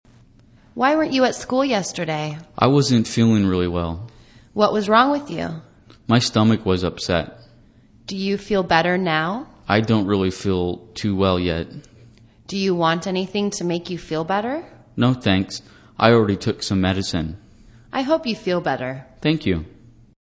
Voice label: english conversation Expressing Concern